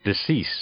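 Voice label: "decease", noun, English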